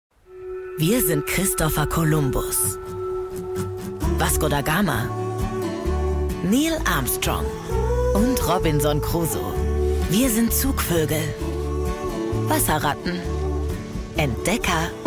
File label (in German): tv spot; der urlaub